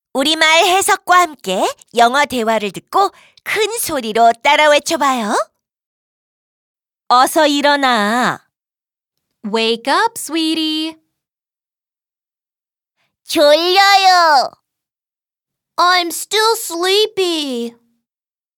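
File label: dialogue Korean English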